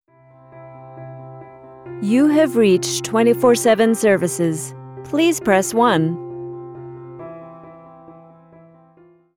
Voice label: IVR Message